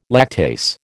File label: "lactase", American